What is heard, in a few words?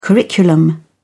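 "curriculum", British English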